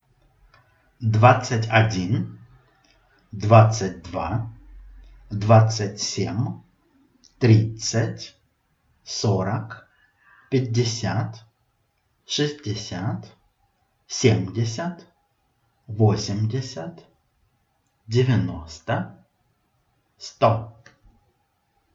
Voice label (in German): russisch